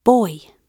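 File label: "boy", British English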